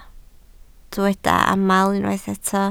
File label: "aml", Welsh